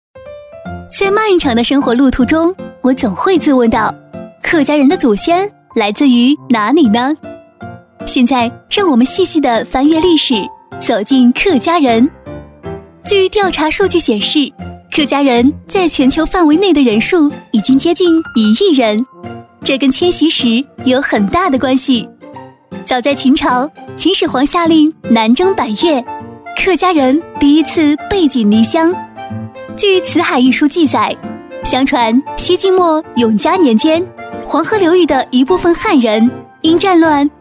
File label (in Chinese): 女 飞碟MG类型 客家风俗文化